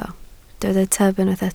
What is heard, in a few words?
"tyb", Welsh